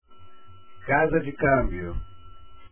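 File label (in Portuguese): "casa cambio", Portuguese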